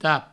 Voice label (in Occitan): "tap", provençal; vocabulaire fondamental du provencal